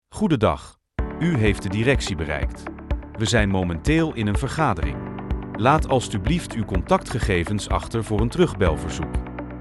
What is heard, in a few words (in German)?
business ansage